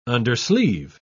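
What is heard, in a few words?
"undersleeve", English, British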